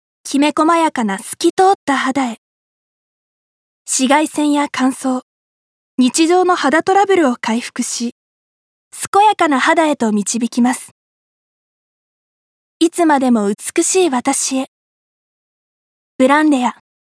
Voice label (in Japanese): CMささやき